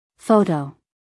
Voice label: "photo", US female